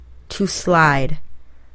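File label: "to slide", English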